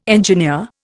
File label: "engineer", Indian